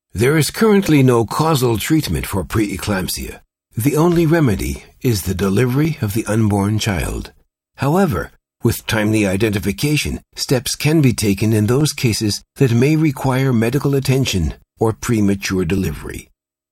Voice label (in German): us englisch kommentar